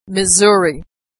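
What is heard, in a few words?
"Missouri", American English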